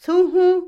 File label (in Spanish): "cantar", Otomí, mujer; Habla; Vocabulary; INALI